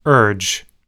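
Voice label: "urge", English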